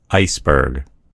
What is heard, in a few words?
"iceberg", en US; gcompris